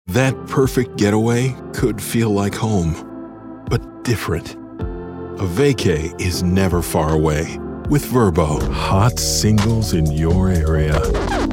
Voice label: men commercial